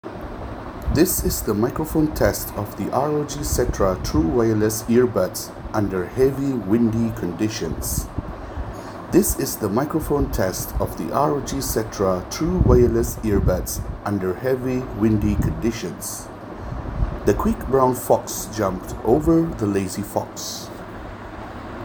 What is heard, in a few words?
mic test; Rog cetra